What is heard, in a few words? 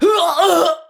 Death Ranged, Battle Barks; Tau; Tau Dax